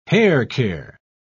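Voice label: "haircare", British English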